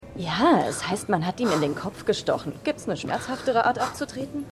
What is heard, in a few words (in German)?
Frau Auf Trauerfeier; Dead To Me